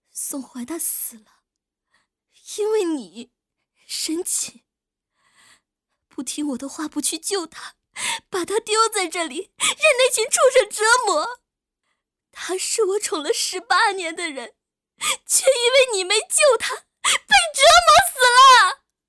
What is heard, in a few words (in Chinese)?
哭少女